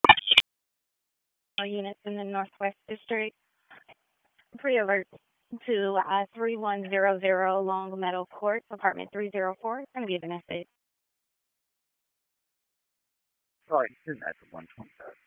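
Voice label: Raleigh Police Northwest District Dispatch, p25; wake p25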